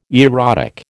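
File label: "erotic", American English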